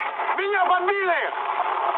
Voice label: aircraft destroyed, mood med, speech ru

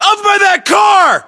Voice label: US landmark near car, battlechatter, english

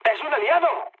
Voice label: player friendly fire, mood low, speech; War Thunder

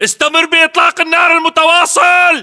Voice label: order action suppress, battle chatter, english

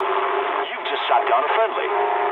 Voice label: eng player killed ally mood low, speech eng

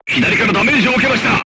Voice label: voice message shot left, crew tanks japanese

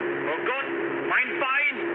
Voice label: damaged pilot, mood med, speech ge; War Thunder

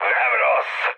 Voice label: trick, mood high, speech hun; War Thunder

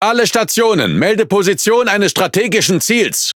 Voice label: Speech Quick Commands Enemy Ship, DE; World Of Warships

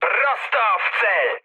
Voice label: bomb success mood med, speech pol; War Thunder

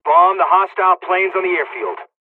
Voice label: dialogs polish; War Thunder

